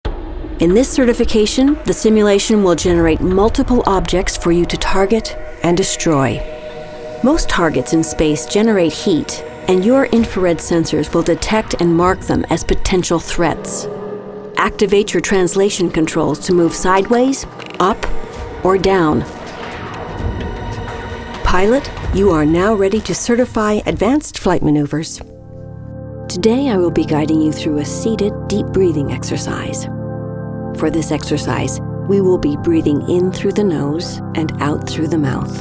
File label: Narration